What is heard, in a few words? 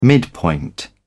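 "midpoint", British English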